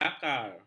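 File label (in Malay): "melakar", Malay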